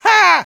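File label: positive vocalization, Spanish; ¡Ja!; Medic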